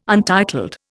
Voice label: "untitled", Indian